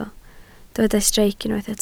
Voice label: "streic", Welsh